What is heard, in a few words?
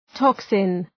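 "toxin", English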